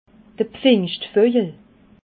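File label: "pfingschtvogel", Alsatian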